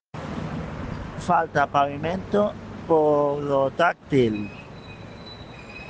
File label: Voice message